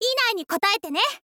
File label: "inai ni kotaete ne", info girl voice